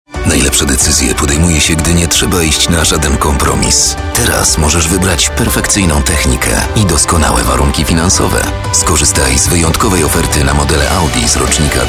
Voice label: COMMERCIALS